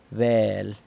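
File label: "bel", San Lucas Quiaviní Zapotec; meat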